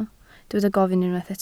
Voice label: "gofyn", Welsh